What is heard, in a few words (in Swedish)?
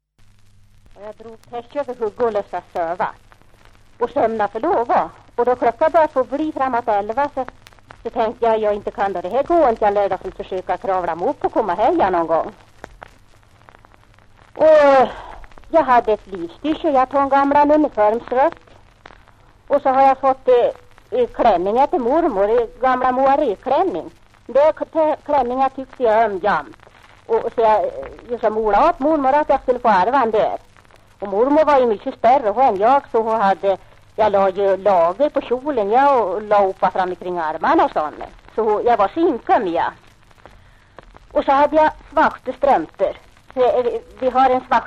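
Arbrå Hälsingland, dialekter, svenska sagor; Folkminnen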